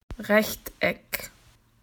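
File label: "rectangle", Australian English; PictureDictionary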